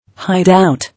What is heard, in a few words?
"Hideout", English